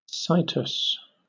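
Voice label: "situs" English, British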